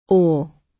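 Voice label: "awe", British; Time, history; Thời gian, lịch sử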